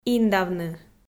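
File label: "индавны", Komi, female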